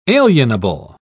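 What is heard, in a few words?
"alienable", British English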